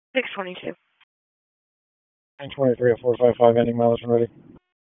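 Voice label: Raleigh Police Southwest District Dispatch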